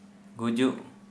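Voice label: death, Santali, male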